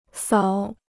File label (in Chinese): "扫", Chinese